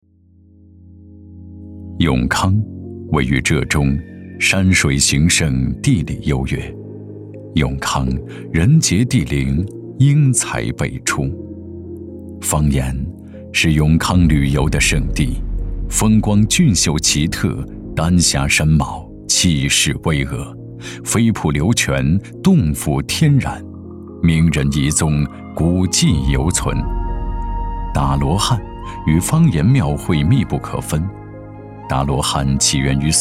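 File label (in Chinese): 男国大音-纪录片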